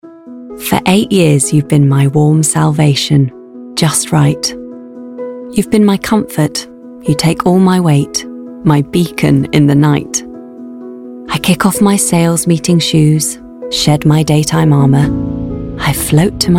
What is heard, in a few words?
Commercial Mix